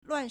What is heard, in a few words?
"luan4", Chinese